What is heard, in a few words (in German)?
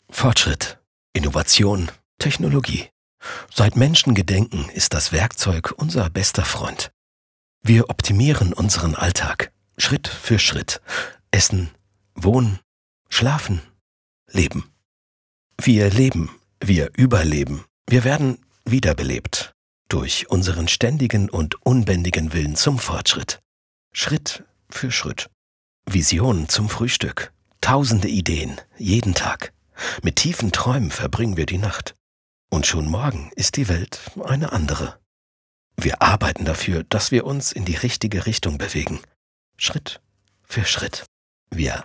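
Imagemovie Business sachlich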